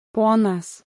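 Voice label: "ponas" Lithuanian; Baltic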